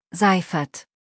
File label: "Seifert", deutsch nachnamen